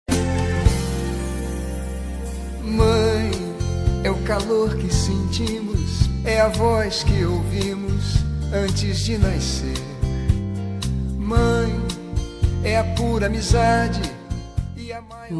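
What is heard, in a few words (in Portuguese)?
DIA DAS MAES DISTANTE female, telemensagem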